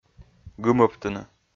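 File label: "гымӧбтыны", Komi, male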